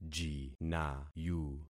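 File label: "jinayu", concatenated syllables; Affixing experiment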